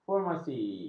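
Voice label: "formasi", Malay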